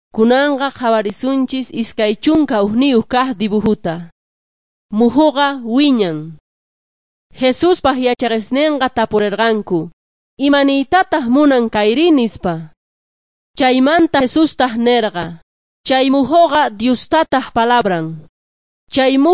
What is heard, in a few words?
jesus lord and saviour quechua s bolivian cochabamba